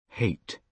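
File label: "hate", British English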